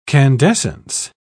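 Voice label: "candescence", British English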